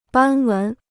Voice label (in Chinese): "斑纹", Chinese